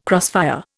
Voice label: "crossfire", Indian